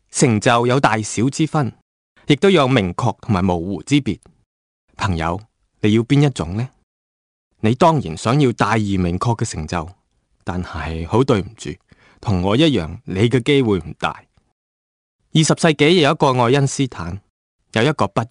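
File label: Chinese Narration; GoLocalise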